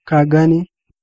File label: do you understand, hausa